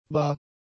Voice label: "bar", German; adjectives

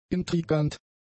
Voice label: "intrigant", german; adjectives

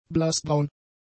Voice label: "blassbraun", German; adjectives

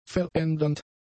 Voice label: "verändernd", German; adjectives

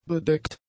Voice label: "bedeckt", German; adjectives

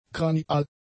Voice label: "kranial", German; adjectives

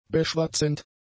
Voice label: "beschwatzend", German; adjectives

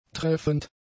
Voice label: "treffend", German; adjectives

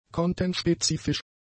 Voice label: "kontenspezifisch", German; adjectives

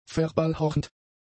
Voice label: "verballhornt", German; adjectives

